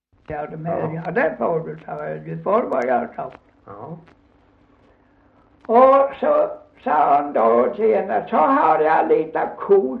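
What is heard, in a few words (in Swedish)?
Gunnarp Halland, Svenska sagor, dialekter; Folkminnen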